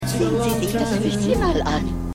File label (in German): Aeltere Frau Auf Strasse; Bridget Jones